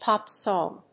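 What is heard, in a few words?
"pop song", English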